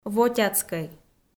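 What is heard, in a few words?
"вотяцкӧй", Komi, female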